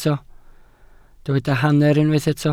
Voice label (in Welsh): "hanner", Welsh